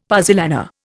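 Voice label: "puzzolana", Indian English; Dictionary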